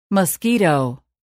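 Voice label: "mosquito", English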